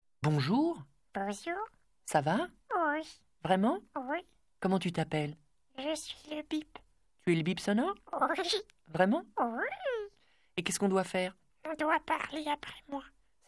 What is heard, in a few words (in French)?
Boite Vocal